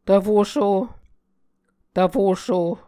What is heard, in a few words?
"tvfosho", Muscogee